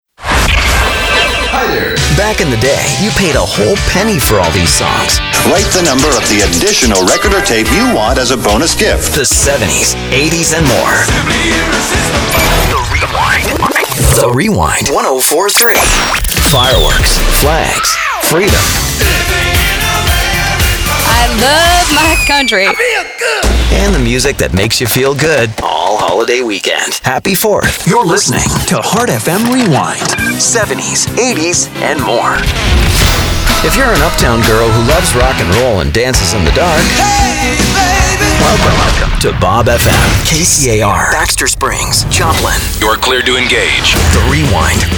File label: radio imaging men classic hits